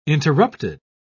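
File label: "interrupted", British English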